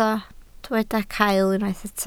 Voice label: "cael", Welsh

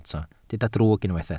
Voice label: "drwg", Welsh

